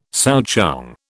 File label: "souchong", British